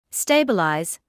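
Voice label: "stabilise", British English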